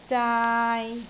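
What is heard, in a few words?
"zaai3", Cantonese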